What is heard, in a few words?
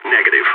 negative, balkan; vo